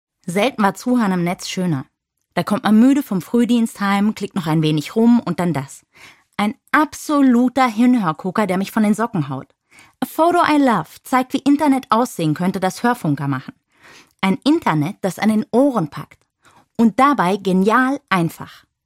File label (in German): Audio Anmoderation